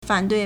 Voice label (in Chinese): "fan3dui4", Chinese